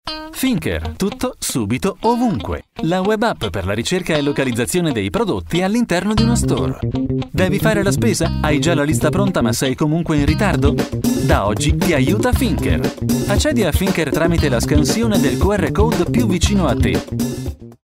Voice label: Promo App

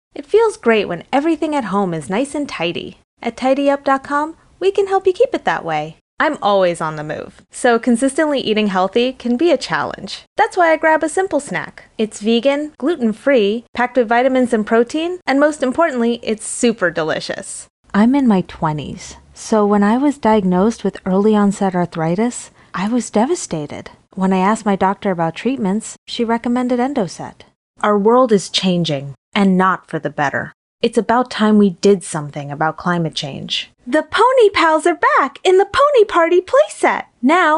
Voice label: Commercial VO No Music

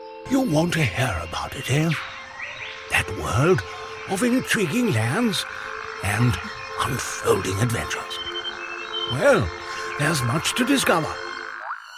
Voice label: David Attenborough Style

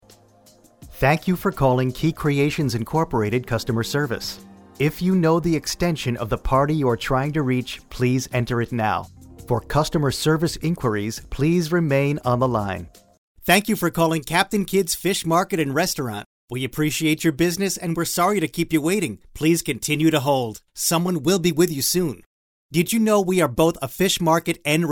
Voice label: IVR Phone

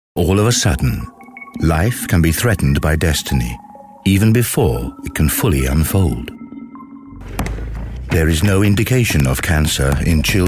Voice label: Childrens Cancer Documentary

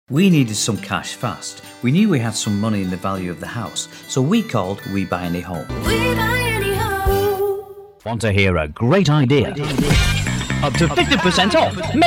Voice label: TV RADIO ADS

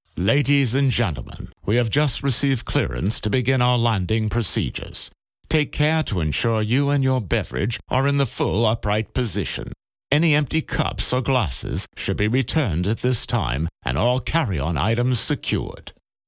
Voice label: announcer British; GMR Holiday Party

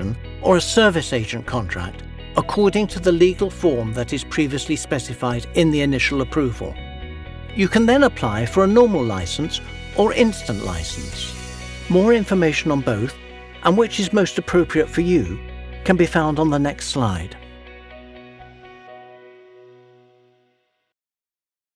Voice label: Explainer, Dubai Economic Tourism

